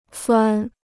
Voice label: "钻", Chinese